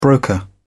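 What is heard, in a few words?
"broker", British English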